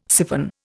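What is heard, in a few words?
"syphon", Indian English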